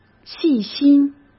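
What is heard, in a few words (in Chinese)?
"细心", Mandarin; chinese-flashcards; hsk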